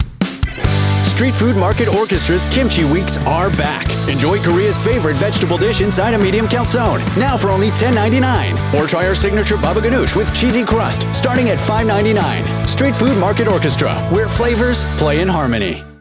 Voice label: American Pizza Ad